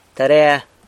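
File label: "tarea", San Lucas Quiaviní Zapotec; exercise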